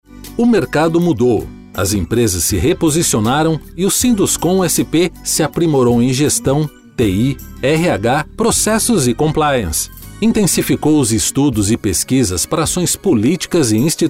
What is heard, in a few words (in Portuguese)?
Institucional